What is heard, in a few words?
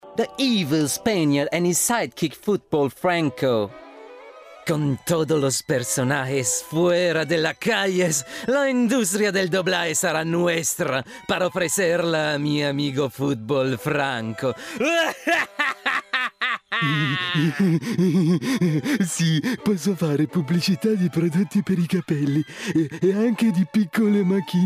spaniard, italian actor; Evil Spaniard & Football Franco; Citylit - London